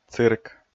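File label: "цирк", Komi, male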